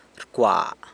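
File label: "rcwa", throws, San Lucas Quiaviní Zapotec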